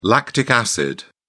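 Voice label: "lactic acid", British English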